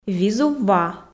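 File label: "визув ва", Komi, female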